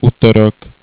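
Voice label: "utorok", Slovak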